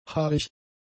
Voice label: "haarig", German; adjectives

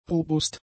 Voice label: "robust", German; adjectives

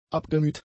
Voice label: "abgemüht", German; adjectives

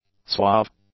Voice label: "suave", English; adjectives

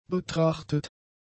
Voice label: "betrachtet", German; adjectives

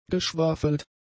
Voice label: "geschwafelt", German; adjectives

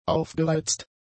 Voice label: "aufgereizt", German; adjectives

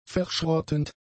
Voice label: "verschrottend", German; adjectives

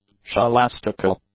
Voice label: "scholastical", English; adjectives

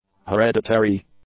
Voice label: "hereditary", English; adjectives

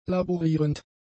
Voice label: "laborierend", German; adjectives

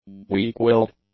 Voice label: "weak-willed", English; adjectives

